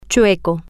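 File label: "chue-co", Spanish, female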